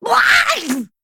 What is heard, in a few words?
Female Wyrmtongue Death